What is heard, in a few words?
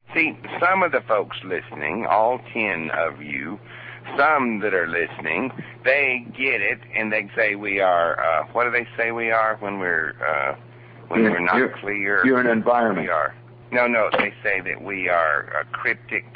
rant